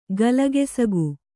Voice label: "ಗಲಗೆಸಗು", Kannada

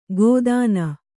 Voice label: "ಗೋದಾನ", Kannada

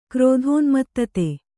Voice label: "ಕ್ರೋಧೋನ್ಮತ್ತತೆ", Kannada

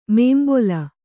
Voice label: "ಮುಂಬಲಿ", kannada

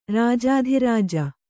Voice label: "ರಾಜಾಧಿರಾಜ", Kannada

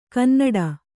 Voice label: "ಕನ್ನುಡಿ", Kannada

